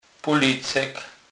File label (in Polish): "pulycek", kurpie